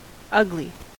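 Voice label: "ugly", American English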